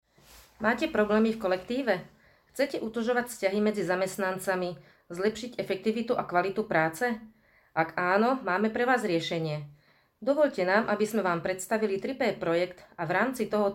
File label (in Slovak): Reklama; reklamne videa